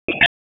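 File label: Police Northeast District Dispatch, p25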